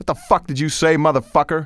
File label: cuss, male; kingpin; profanity